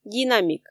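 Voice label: "динамик", Komi, female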